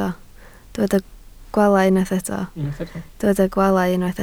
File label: "gwaalau", Welsh